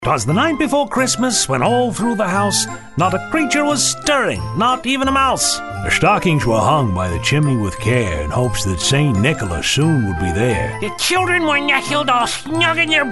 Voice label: Character, animation